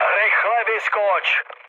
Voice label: bailout, speech cze, mood low; War Thunder

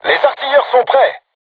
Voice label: voice message art charged, crew tanks french

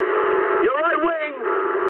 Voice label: eng right wing mood high, speech eng; War Thunder

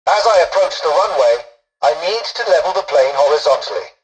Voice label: tutorial dialogue, english; War Thunder

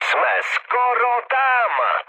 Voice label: near waypoint, speech cze, mood low; War Thunder

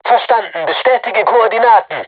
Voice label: voice message art shoot, crew tanks german

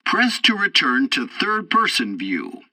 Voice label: tutorial bomber sight, english; War Thunder

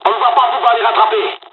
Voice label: dialog, dialogs french; midway; War Thunder

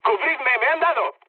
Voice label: aircraft damaged, mood med, speech; War Thunder

